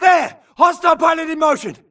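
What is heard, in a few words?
grunt target Player Moving Mid; imc; Titanfall 2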